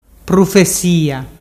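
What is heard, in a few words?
"prufesia", Ligurian dialect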